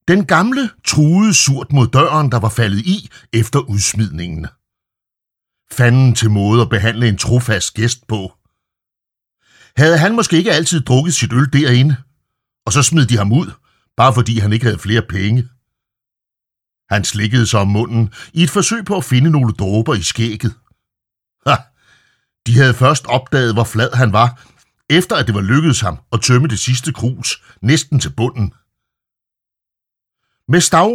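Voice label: Danish Audiobook Male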